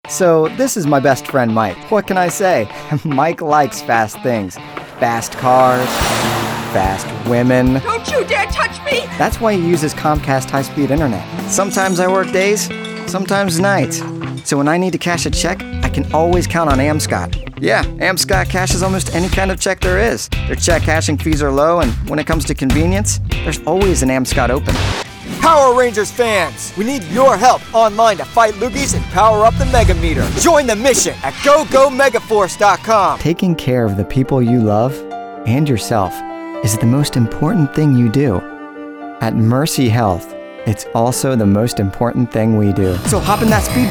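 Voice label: Commercial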